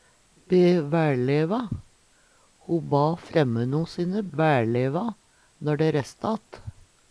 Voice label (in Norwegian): be vaelleva, Numedalsmål; Ho ba fremmeno sine væLleva når dei resste att.